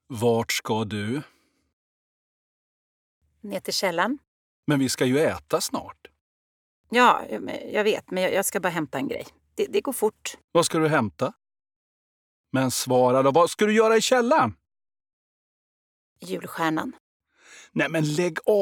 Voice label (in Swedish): julkalender vuxen dialog snabb